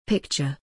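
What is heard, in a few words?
"picture", English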